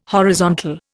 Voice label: "horizontal", Indian